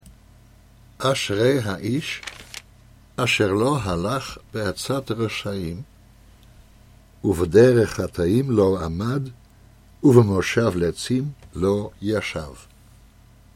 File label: Psalm 1 1 Hebrew